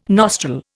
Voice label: "nostril", Indian